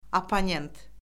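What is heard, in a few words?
"opponent", Azerbaijani; Orfoqrafiya Lüğəti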